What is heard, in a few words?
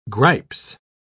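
"gripes", British English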